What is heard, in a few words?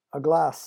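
"a glass", English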